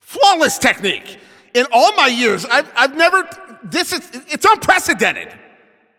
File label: Announcer Especially Good Shot